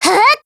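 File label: ATTACK, Japanese; ACR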